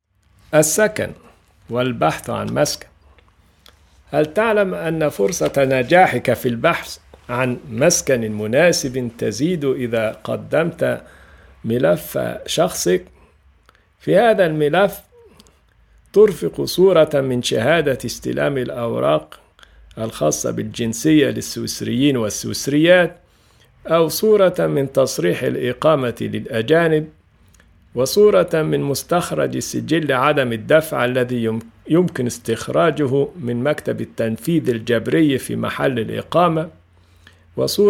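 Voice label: Arabic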